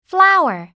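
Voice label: "flower", English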